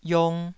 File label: "iong2", taiwanese syllable